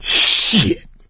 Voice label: reaction negative, survivor voice